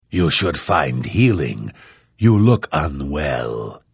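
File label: Hello, Vo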